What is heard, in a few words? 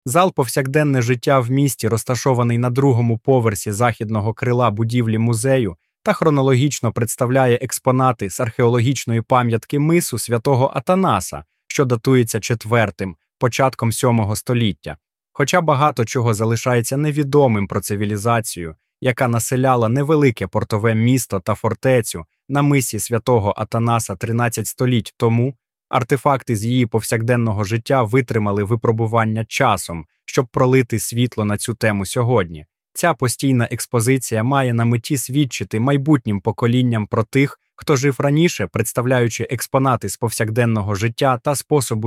Museum Ukranian; музейний аудіогід